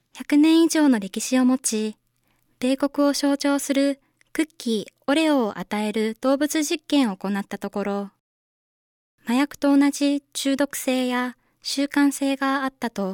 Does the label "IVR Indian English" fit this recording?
No